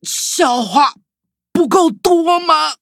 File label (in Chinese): defeat, zh-hant; cookie run